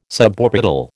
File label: "suborbital", American